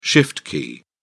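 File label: "shift key", British English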